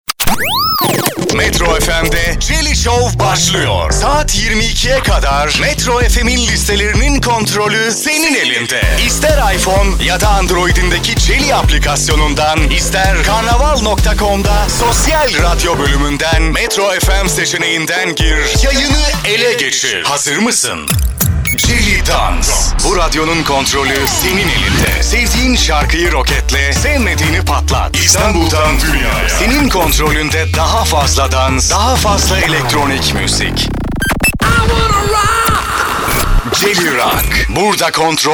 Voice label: turkish m commercial, Turkish voice; voice demos

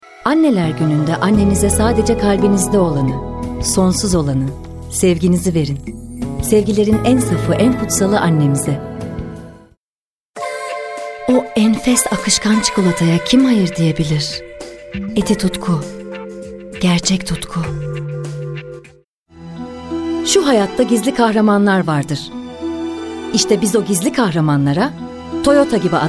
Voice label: turkish f commercial; voice demos

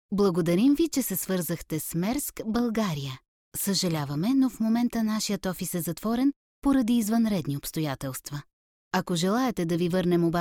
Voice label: bulgarian f ivr, voice; voice demos

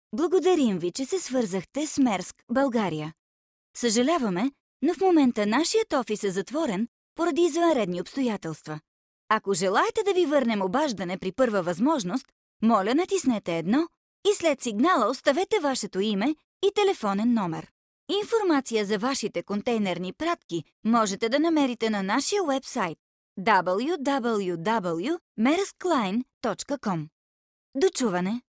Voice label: bulgarian f ivr, voice; voice demos

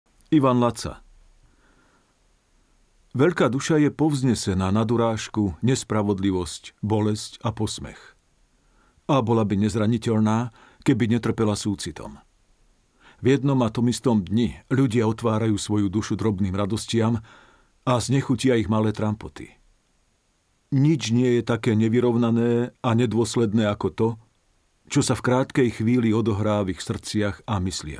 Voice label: slovak m narration; voice demos

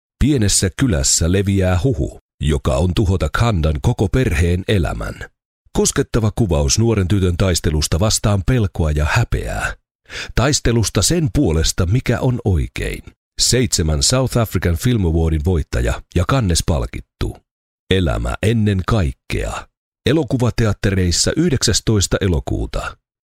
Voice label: finnish m narration; voice talents

